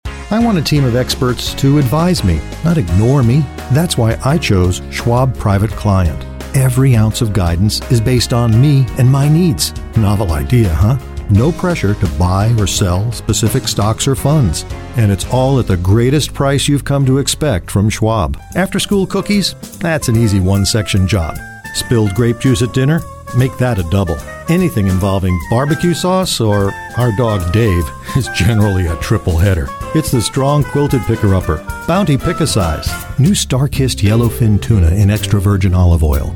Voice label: Business Narration Industrial Corporate, English American